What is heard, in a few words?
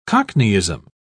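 "cockneyism", English, British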